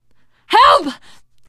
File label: horde attack, teen girl, survivor voice